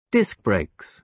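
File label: "disc brakes", British English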